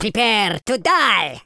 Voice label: uhoh, speech Super Villain